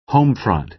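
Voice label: "home front", American English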